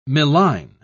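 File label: "milline", British English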